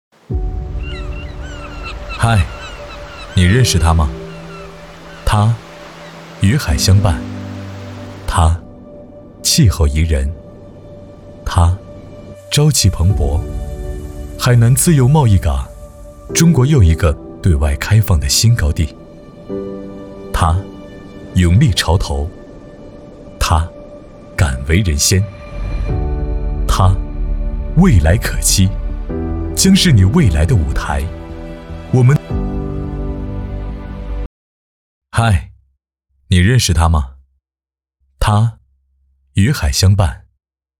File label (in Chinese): 男692 旁白; 海南税务